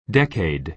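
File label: "decade", American English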